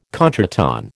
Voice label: "contretemps", American